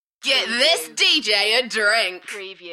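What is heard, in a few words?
UK female, Enthusiastic, DJ vocals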